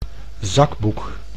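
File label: "zakboek", Dutch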